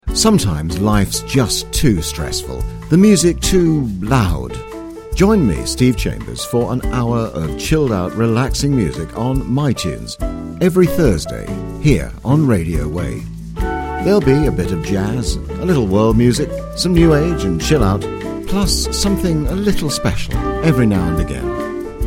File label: trailer